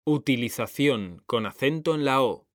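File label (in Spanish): "utilización", Spanish; mekanta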